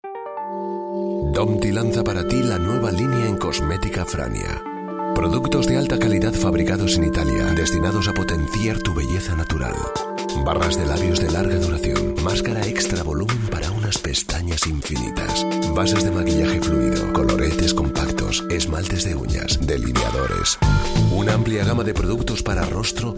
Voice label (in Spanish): voz masculina; CASTELLON; GENERICAS ESPANOL